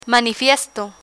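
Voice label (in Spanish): "manifiesto", Spanish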